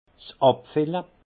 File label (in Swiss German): "abfille", alsace; vin; mis en bouteille